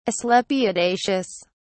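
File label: "asclepiadaceous", British English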